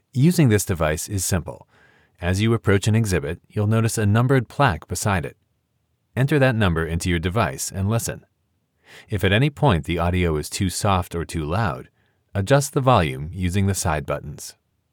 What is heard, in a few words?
museum audio guide instructions; How To Use This Audio Guide